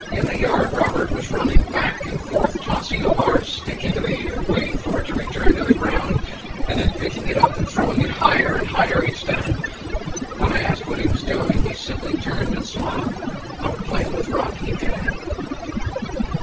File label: male noisy